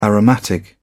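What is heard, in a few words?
"aromatic", British English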